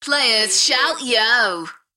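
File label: UK female, DJ vocals; beanstalk-audio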